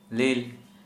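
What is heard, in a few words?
Santali male blue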